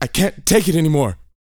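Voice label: talk female, sci-fi; 5 SCI-FI CHZ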